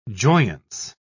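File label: "joyance", British English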